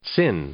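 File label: "sin", English, male; noun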